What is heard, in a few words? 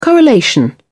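"correlation", British English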